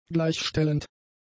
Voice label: "gleichstellend", German; adjectives